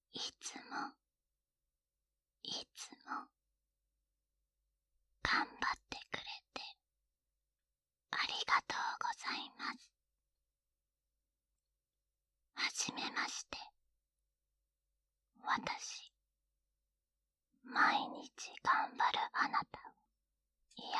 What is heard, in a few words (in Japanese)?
バイノーラル癒しの囁き; オナサポ